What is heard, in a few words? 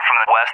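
"from the west", English, USA; DCS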